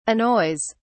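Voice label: "annoys", British English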